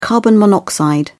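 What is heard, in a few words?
"carbon monoxide", English, British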